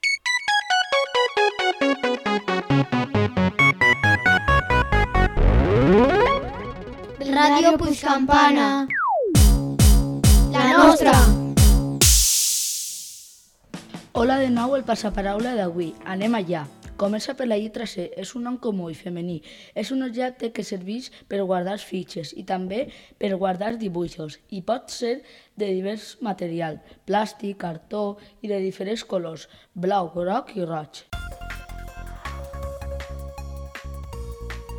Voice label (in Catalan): RADIO; PUIG CAMPANA